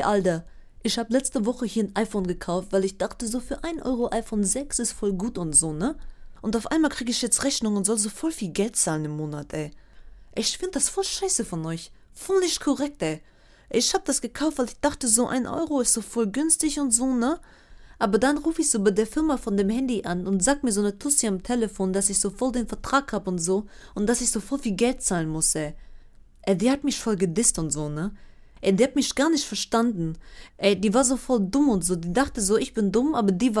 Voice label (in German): ASSI SPRACHE